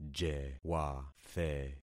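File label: "jewathe", concatenated syllables; Affixing experiment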